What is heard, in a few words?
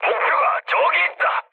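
Korean near waypoint mood medium; War Thunder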